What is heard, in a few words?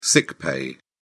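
"sick pay", British English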